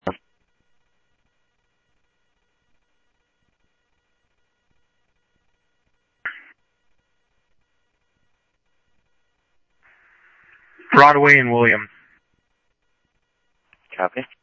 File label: Sheriff, scanner audio